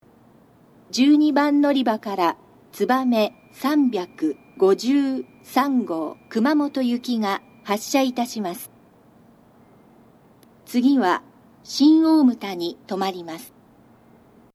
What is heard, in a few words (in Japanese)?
12番のりば発車放送, 新幹線ホーム; 筑後船小屋; 12番のりば発車放送（つばめ353号 熊本行き）